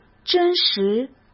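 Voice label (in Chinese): "真实", Mandarin; chinese-flashcards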